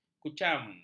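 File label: "kucam", Malay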